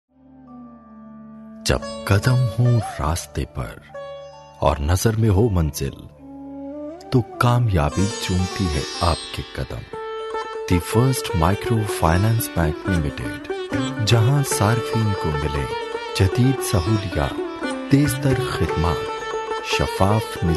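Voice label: Urdu, Pakistan, male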